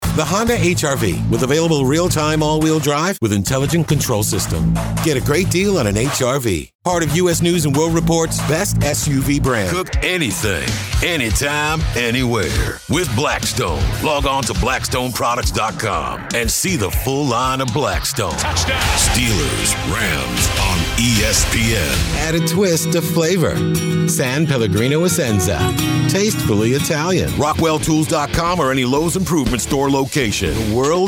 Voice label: COMMERCIAL MONO